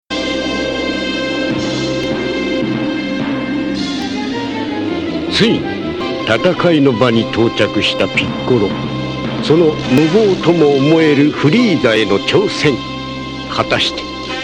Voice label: narrator; dragon ball z